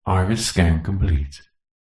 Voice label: science fiction computer voice says iris scan complete; zapsplat